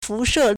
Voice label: "fu2 she4", Chinese

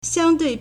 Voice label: "xiang1dui4", Chinese

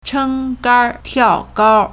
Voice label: "cheng1gan1tiao4gao1", Chinese

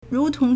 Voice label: "ru2 tong2", Chinese

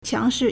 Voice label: "qiang2 shi4", Chinese

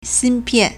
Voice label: "xin1pian4", Chinese

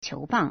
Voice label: "qiu2 bang4", Chinese

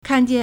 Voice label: "kan4jian4", Chinese

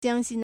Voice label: "jiang1 xi1", Chinese

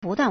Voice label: "bu4dan4", Chinese

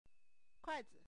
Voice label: "kuai4zi", Chinese

